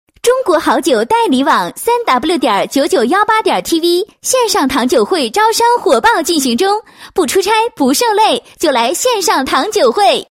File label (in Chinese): 女 宣传广告; 好酒代理网宣传